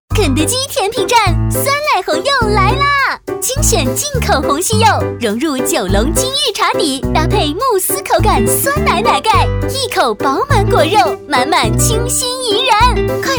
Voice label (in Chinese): 女 广告 甜品站 欢快; 肯德基